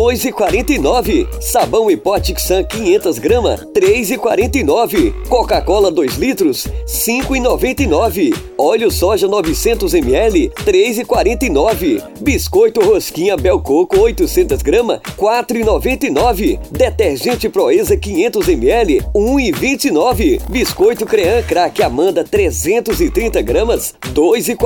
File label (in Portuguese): locutor supermercado